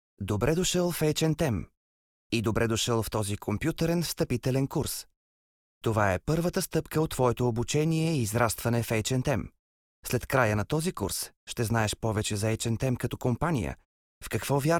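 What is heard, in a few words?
bulgarian male e-learning, voice demos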